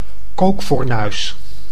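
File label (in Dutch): "kookfornuis", Dutch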